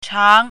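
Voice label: "chang2", Chinese pinyin, second tone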